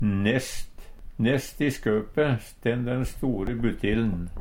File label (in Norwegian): nesst, Numedalsmål; Nest i skøpe stenn den store buttiln.; Preposisjon